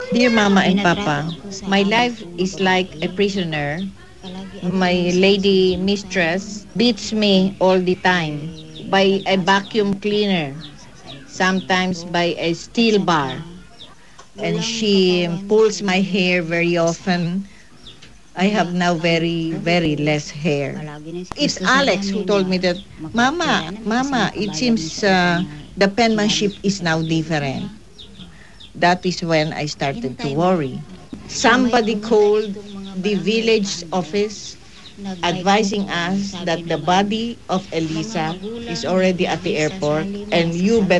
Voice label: ESL Phillipines, Non-Hispanic Non-Native Speakers, dialect